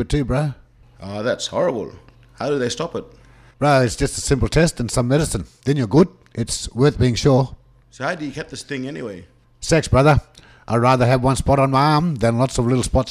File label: Syphilis AD Tag Boys